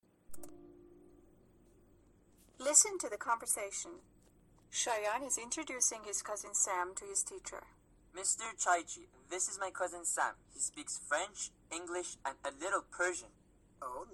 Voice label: conversation